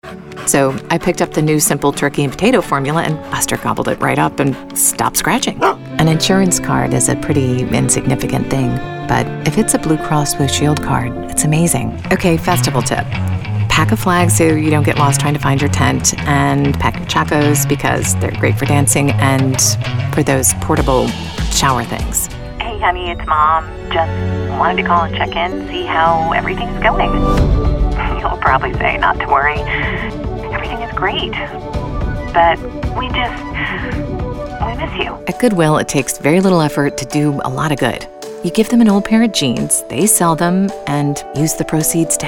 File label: Conversational Real Person